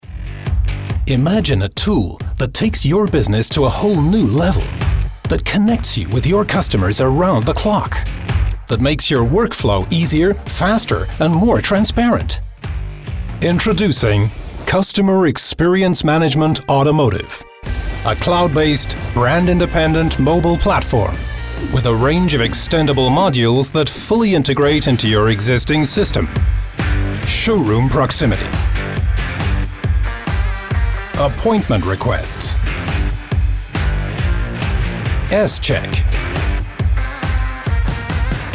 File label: Commercial; T-Systems